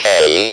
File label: "K" spoken, Speak and Spell; ALPHABET